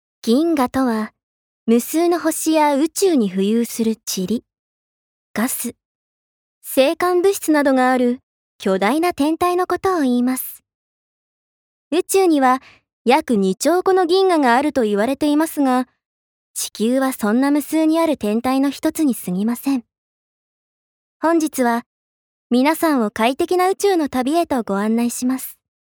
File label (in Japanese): ナレーション